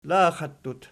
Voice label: "laxattut", Archi